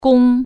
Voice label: "bow", Chinese